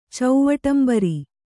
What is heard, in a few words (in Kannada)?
"ಚೌವಟಂಬರಿ", Kannada